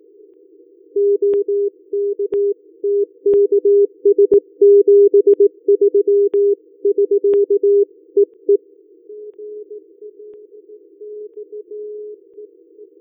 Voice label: qso